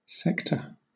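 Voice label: "sector", British English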